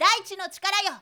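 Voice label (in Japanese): "shonen daitinotikarayo", Japanese boy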